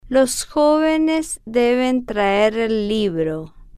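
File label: los jovenes deben traer el libro, slow, spanish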